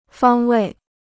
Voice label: "方位", Chinese

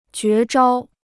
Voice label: "绝招", Chinese; unique skill; jué zhāo

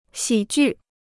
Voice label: "喜剧", Chinese

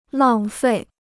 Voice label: "浪费", Chinese

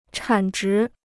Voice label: "产值", Chinese

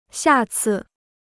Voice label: "下次", Chinese

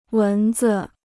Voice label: "蚊子", Chinese

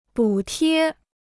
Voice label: "补贴", Chinese

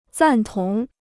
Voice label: "赞同", Chinese

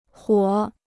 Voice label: "火", Chinese

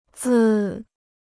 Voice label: "字", Chinese

